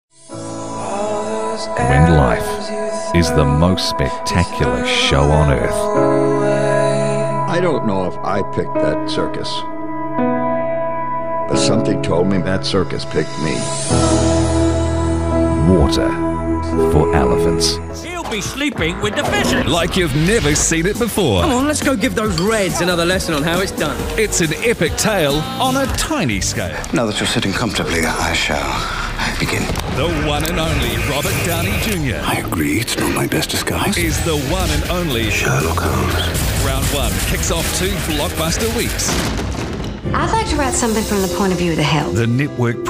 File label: Movie Trailer